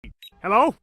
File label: beep hello